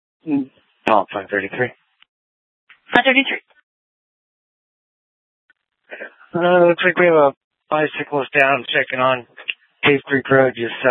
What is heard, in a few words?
Sheriff, scanner P25